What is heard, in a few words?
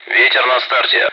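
Speech, Russian, air traffic control; ATC; wind at start; DCS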